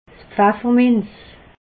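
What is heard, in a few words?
"pfefferminz", Alsatian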